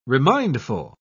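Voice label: "remindful", British English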